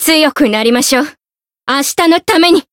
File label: voice jp; kikyou